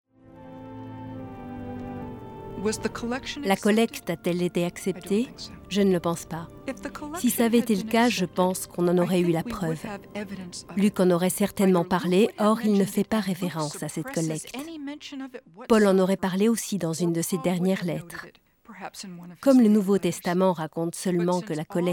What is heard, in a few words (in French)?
narration; Planete